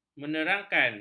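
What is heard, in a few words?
"menerangkan", Malay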